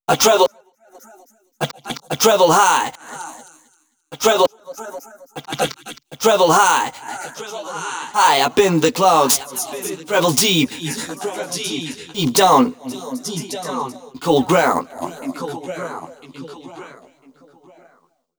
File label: male c 120, hooklines, Dance Ultra Voice; hooklines 100-120 BPM; Mutekki Media; Dance Ultra Voice VOL-1